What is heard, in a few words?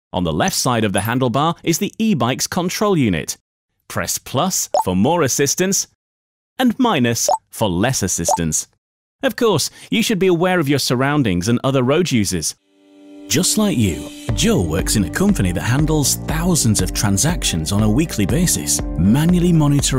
eLearning Reel